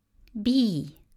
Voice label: "bee", vowels

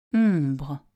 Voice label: "ombre" French; nasal

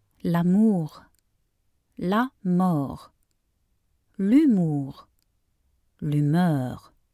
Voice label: 4 pronunciations, French; love

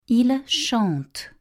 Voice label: "ils chantent" French; nasal

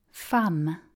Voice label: "femme", vowels

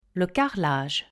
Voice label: "carrelage", French; house in french

